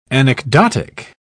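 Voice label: "anecdotic", British English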